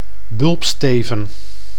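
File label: "bulbsteven", Dutch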